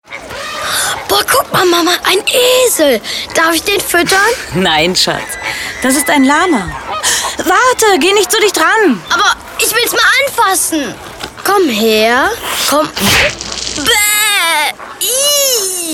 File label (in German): Werbung; VW